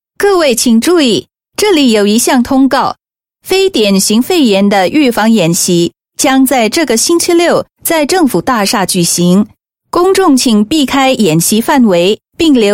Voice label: Mandarin, China Female